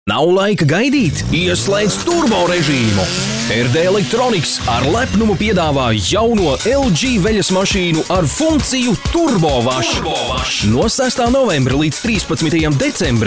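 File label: Latvian, male, commercial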